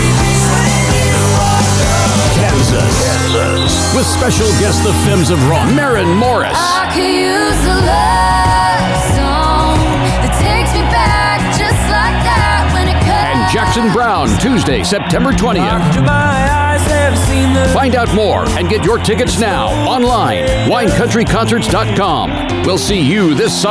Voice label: Concert Promo

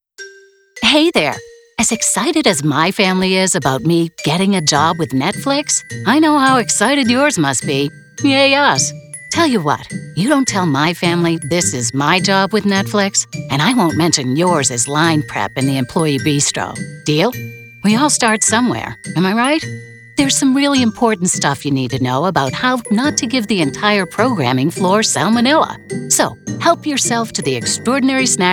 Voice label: e-learning

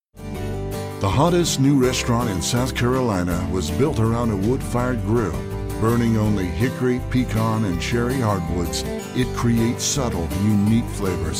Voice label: Travel Narration

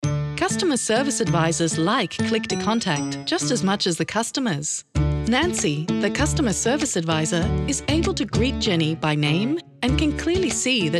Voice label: Corporate Australian

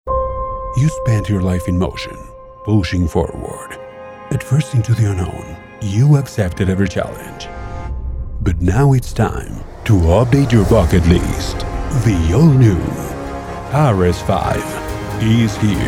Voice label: English

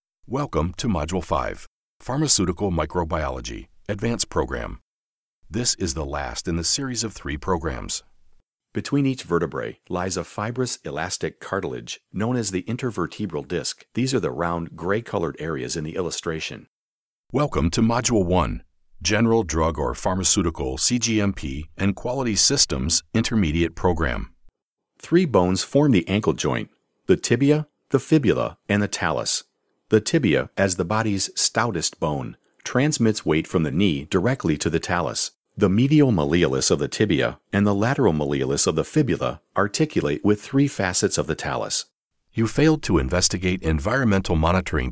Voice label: medical narration

